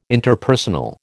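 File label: "Interpersonal", American English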